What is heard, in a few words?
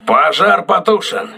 fire doused, crew ships russian